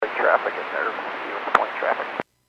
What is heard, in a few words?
Airports 122.7, Radio; KSTE